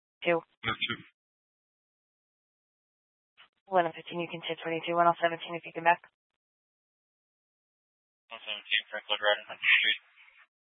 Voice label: Scottsdale Police, Simulcast

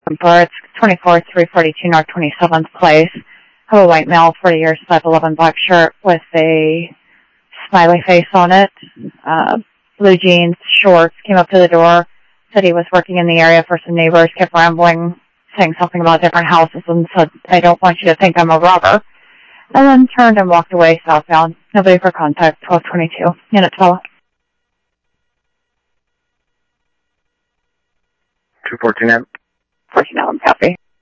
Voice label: Phoenix PD, scanner audio

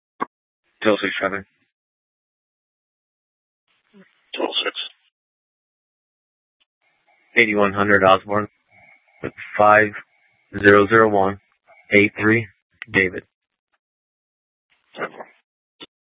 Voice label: Police South Dispatch

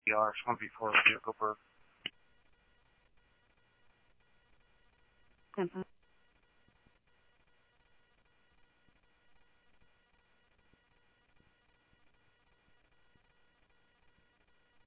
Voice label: PD Police, scanner audio

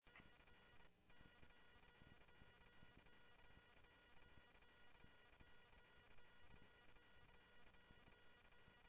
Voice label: Info Sheriff Common, scanner audio trunk

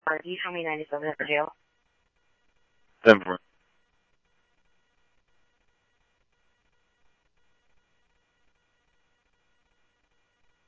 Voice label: Police, scanner audio trunk

